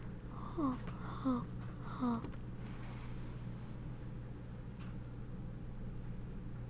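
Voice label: "hold", spoken word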